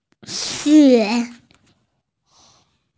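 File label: "xue2", Chinese, F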